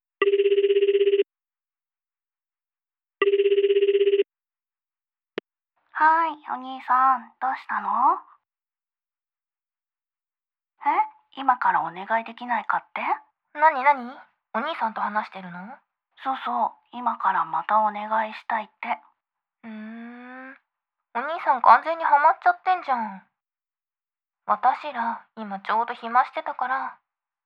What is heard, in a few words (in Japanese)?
ストーリー導入